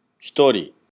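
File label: "hitori", Japanese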